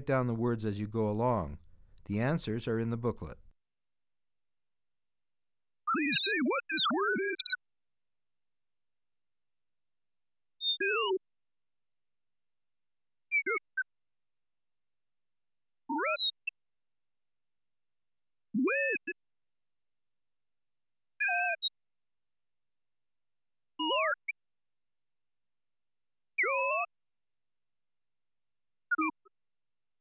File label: Sine-Wave Speech; Bregman ASA; auditory demonstrations